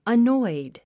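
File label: "annoyed", 英単語 発音; ənɔ́id アノイド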